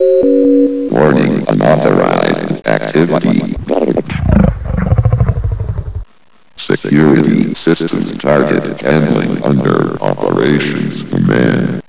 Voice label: black ops announcement; bts rc